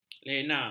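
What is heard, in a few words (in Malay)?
"lena", Malay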